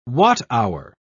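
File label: "watt-hour", British English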